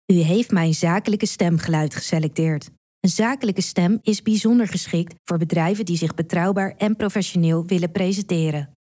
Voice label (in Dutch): zakelijk